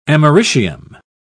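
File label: "americium", English, British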